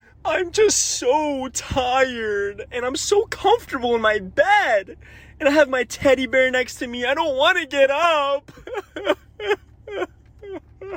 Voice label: motivational alarm ringtone; android